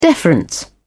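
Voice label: "deference", British English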